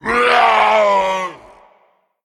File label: Male Titan Death